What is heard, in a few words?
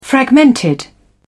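"fragmented", English, British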